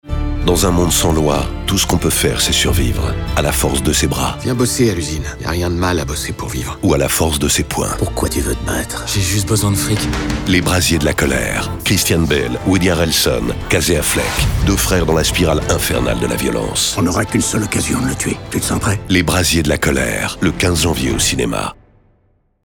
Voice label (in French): spot